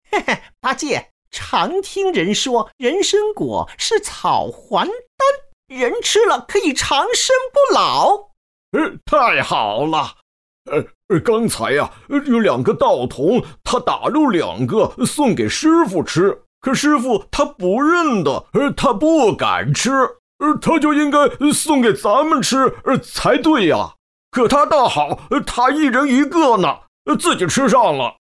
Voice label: 模仿系列 一人双配; 西游记人参果

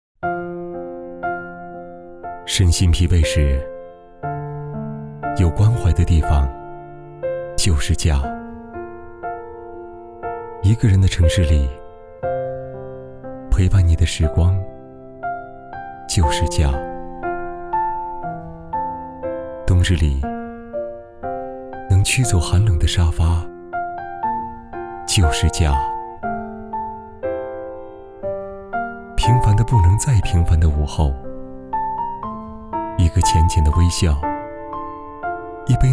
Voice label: 伤感独白; 复华人的幸福

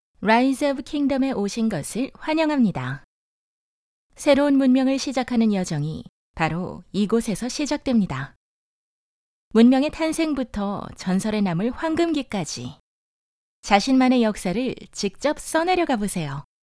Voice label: 韩籍韩语女

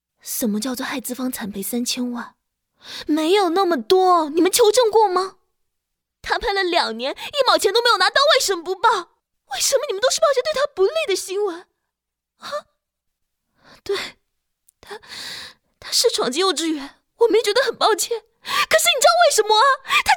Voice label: 台湾腔电视剧

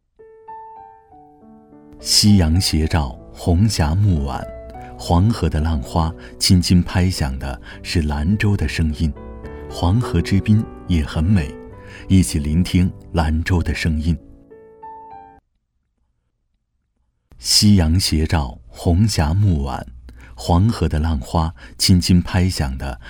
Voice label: 专题 清新 讲述

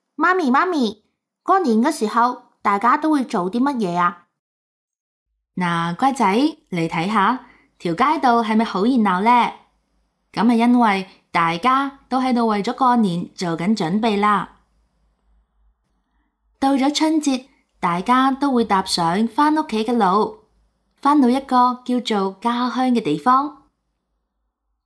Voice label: 角色 对白 孩子 和 妈妈 春节

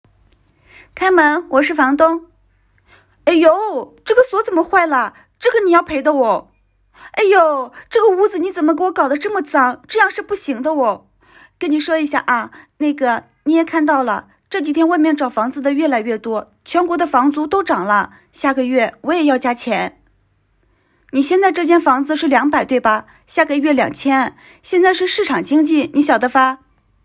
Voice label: 中年房东

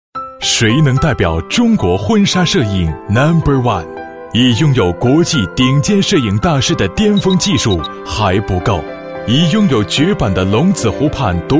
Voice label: 广告 浪漫 亲密 爱人 婚纱 摄影

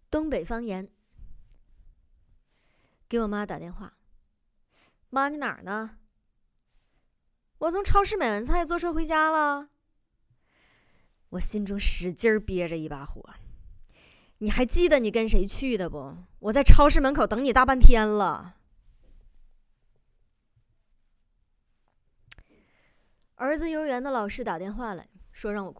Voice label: 东北方言; 东北方言二

